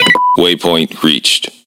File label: En Speech Autopilot Checkpoint; World Of Warships